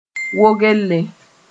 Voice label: "wogelle", moore

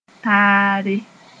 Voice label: "taare", moore; alike

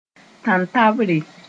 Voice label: "tantabre", moore

